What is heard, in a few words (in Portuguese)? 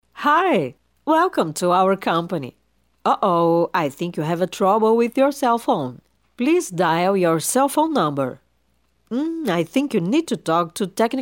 voz humanizada en-es